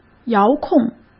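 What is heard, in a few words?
"遥控", Mandarin Chinese; chinese-flashcards; hsk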